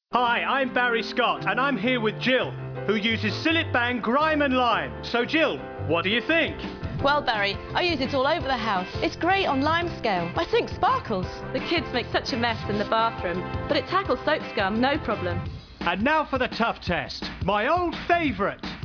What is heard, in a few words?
Advert; Cillit Bang